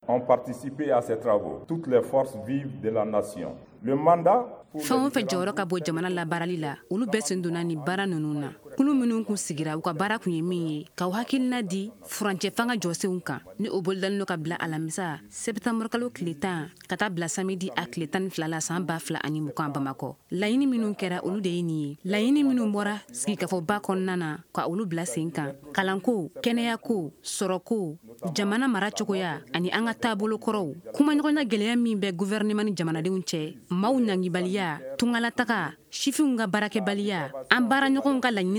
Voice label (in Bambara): magazine bamanankan